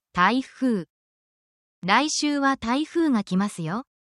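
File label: Japanese word, japanese phrases; lets talk weather essential japanese phrases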